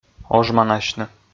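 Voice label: "ожманасьны", Komi, male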